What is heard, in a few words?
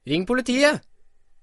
"police", Norwegian